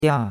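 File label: "dia4", Chinese; Cours-de-Chinois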